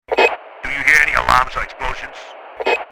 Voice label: Firefighter radio chatter do you hear alarms or explosions